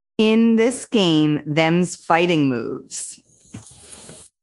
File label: upset; beth; fertig classic games